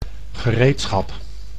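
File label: "gereedschap", Dutch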